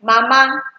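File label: "mama", Nigerian Pidgin, female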